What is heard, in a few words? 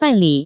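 "banli", Taiwan Mandarin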